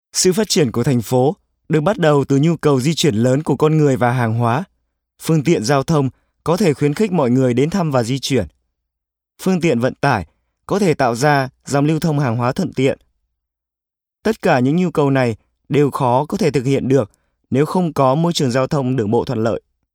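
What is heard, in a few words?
Vietnamese informative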